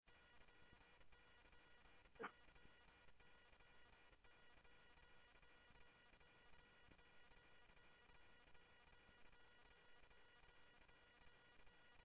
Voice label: Tempe Police, scanner audio

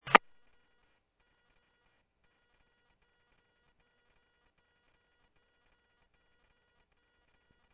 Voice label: Medevac, scanner; NativeAirAmb

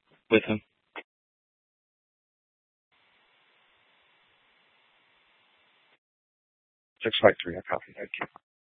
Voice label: Sheriff scanner; Maricopa County (P25) - Thompson Peak; Shrf Mnt (Parks)

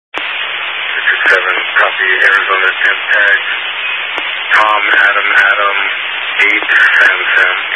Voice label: Highway Patrol, scanner; DPS; Dist 06 Casa Grande

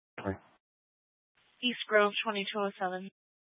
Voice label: Mesa PD Dispatch

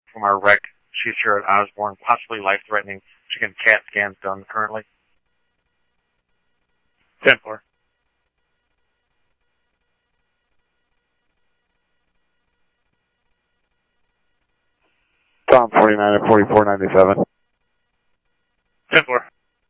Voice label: Scottsdale Police, scanner audio; SCTSDLE PD - PD2; ThompsonPeakHigh

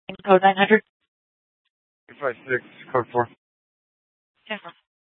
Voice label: Dispatch; Scottsdale

